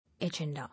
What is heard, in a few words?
"Agenda", English